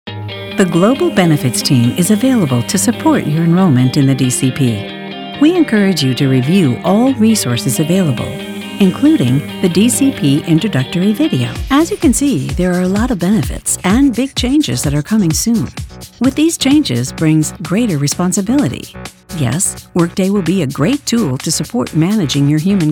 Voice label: eLearning

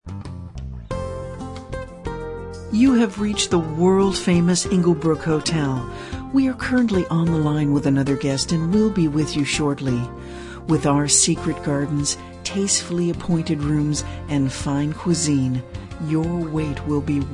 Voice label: telephony

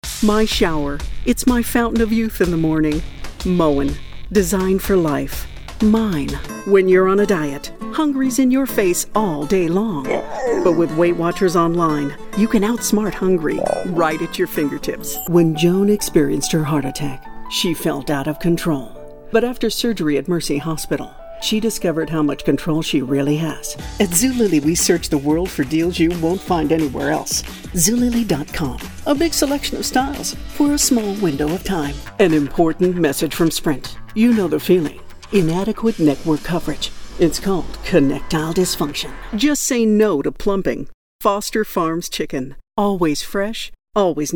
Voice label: commercial

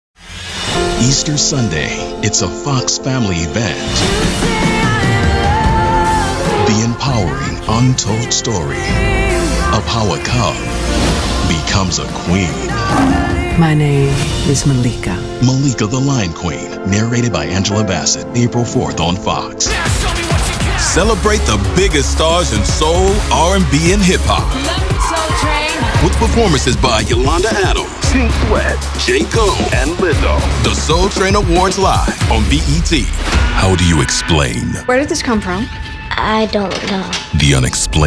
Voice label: Promos

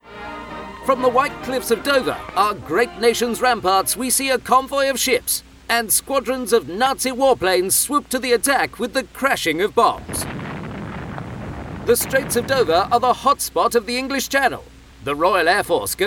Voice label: Vintage Newsreader

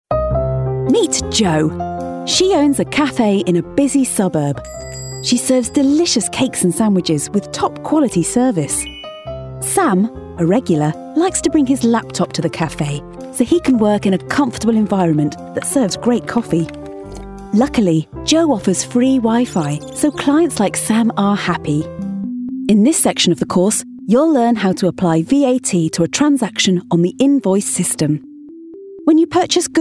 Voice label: Corporate British

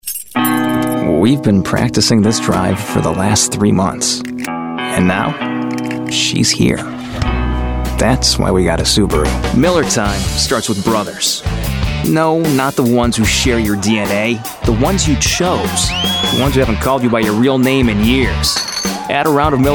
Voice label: Commercial

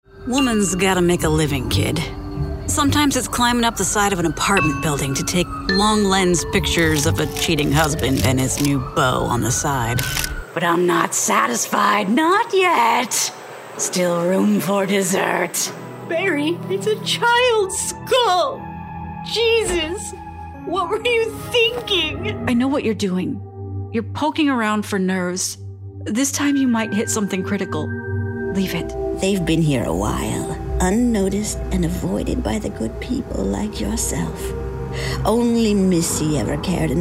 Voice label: Videogames Non Binary English

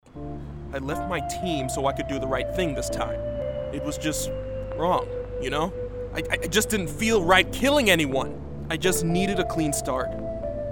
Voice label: Character

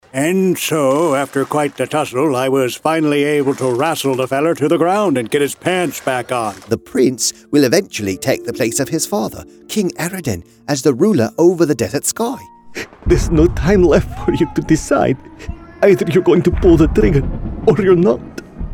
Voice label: Animation

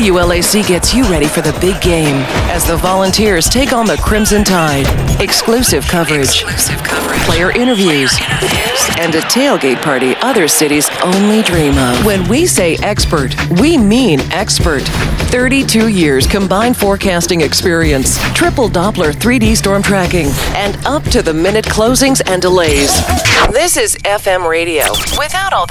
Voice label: Imaging News Talk

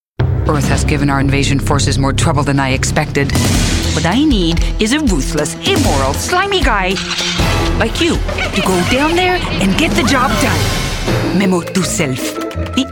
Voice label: Animation

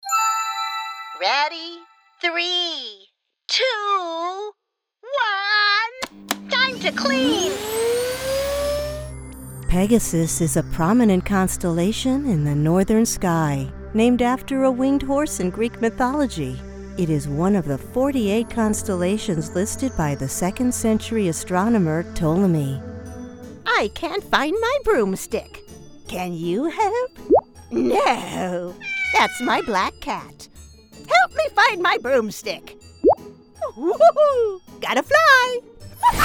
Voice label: K-12 e-learning

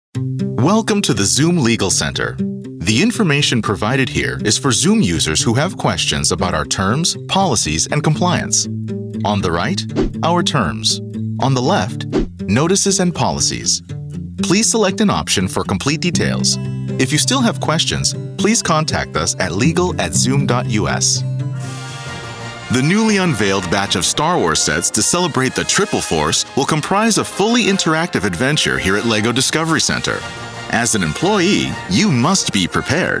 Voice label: e-learning

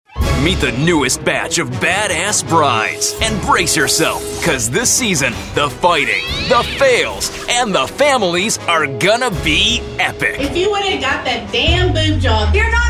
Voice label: Promo

